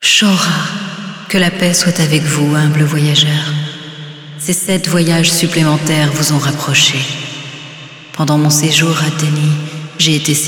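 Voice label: Speech french; Yeesha; moul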